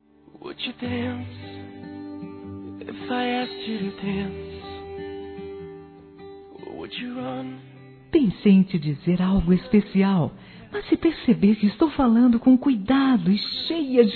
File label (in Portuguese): Aniversário Neta Feminino; Aniversários; Aniv Familiar